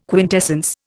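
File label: "quintessence", Indian English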